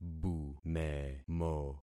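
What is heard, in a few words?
"bumemo", concatenated syllables; Affixing experiment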